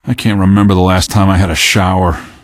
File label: question, male; Garry's Mod; hl2